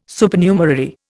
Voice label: "Supernumerary", Indian

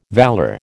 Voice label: "valour", American

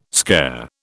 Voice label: "scare", British

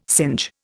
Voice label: "singe", Indian

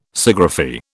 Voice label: "Sciagraphy", British

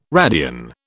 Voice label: "radian", British

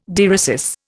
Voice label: "dieresis", Indian

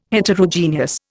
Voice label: "Heterogeneous", Indian

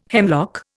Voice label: "hemlock", Indian

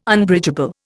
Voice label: "Unbridgeable", Indian

